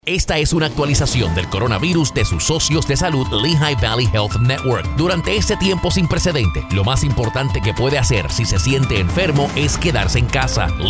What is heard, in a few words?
Spanish COVID PSA